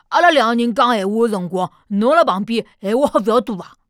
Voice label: 胖小孩

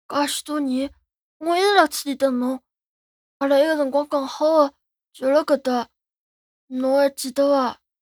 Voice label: 吕萍 男孩 对话

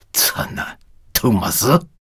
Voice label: 肉铺胖子

